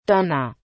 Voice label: "Donner", German